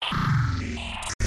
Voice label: vocal glitch robot lofi; silverplatter audio